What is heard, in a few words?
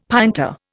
"pinta", Indian; Dictionary